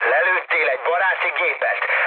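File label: Hungarian player killed ally mood med, speech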